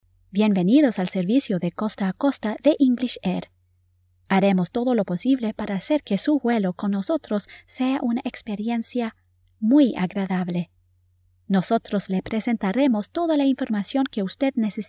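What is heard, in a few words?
Spanish Latin America female narrative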